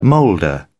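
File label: "moulder", British English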